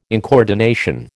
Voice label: "incoordination", American